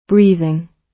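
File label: "breathing", British English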